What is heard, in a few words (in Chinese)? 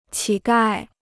"乞丐", Chinese